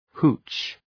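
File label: "hooch", English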